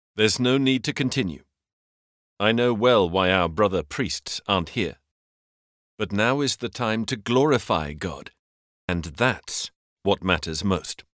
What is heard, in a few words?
locutor ingles english voiceover, locutor británico; British voice over